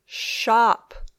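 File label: "shop", English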